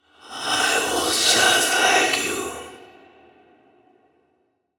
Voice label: Indistinct Voice I was just like you